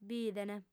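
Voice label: "bident", female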